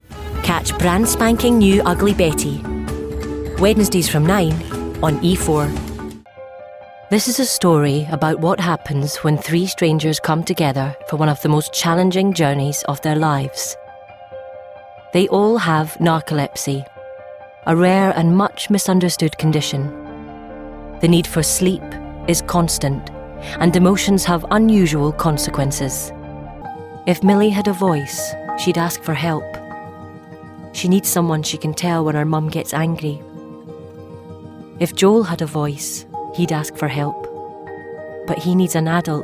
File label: English UK Scottish Commercial